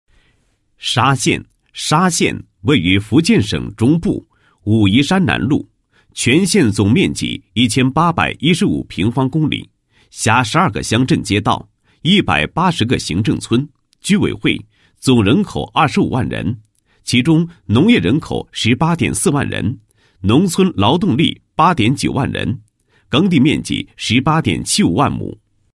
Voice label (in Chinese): 男 解说词; 沙县2014年